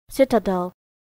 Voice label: "citadel", English

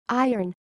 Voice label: "iron", English

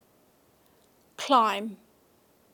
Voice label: "CLIMB" in British English

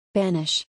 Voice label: "banish", English

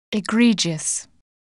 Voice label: "egregious", English

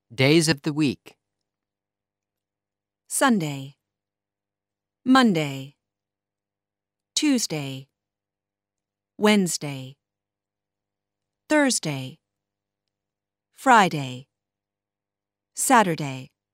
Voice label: "raw"

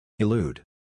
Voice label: "Elude" Pronunciation

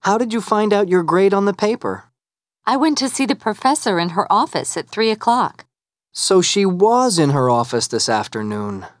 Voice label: dialog

